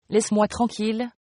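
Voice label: leave me alone, French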